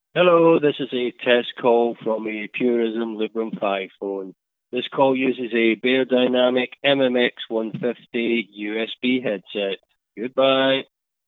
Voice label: Test Message, Beyerdynamic MMX 150 USB headset; Librem 5